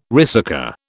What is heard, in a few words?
"ricercar", British